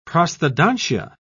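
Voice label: "prosthodontia", British English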